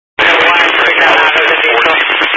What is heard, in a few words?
Highway Patrol, scanner; DPS